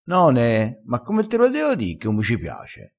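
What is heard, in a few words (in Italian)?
"none", dialettale crespinese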